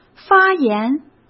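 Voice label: "发炎", Mandarin Chinese; hsk; chinese-flashcards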